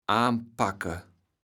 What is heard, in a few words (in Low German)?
"aanpacke", kaevels platt